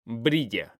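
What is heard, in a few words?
"brilla", Spanish, male; mekanta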